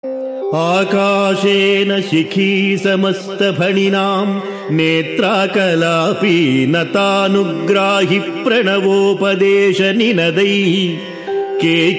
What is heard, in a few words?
Sanskrit; Sri Adi Sankaracharyas Sivananda Lahari; Adi Shankaracharya; श्रीशिवानन्दलहरी ५३